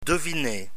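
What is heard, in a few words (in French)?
"deviner", French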